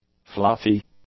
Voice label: "fluffy", english; adjectives